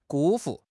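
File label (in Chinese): "辜负", Chinese; HSK; HSK6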